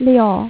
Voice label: "3" female mandarin

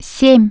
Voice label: "0", Russian Human Voice Tone; LG GX200; Keypad Tones

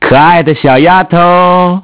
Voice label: Man Voice; Innostream INNO 55

